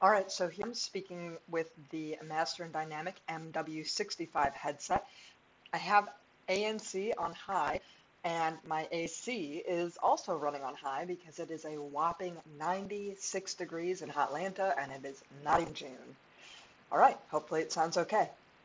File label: Master Dynamic MW65 microphone